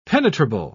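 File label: "penetrable", English, British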